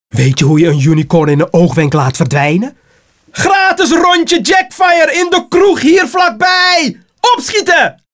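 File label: Bonus Dutch; arthur and the revenge of maltazard; wii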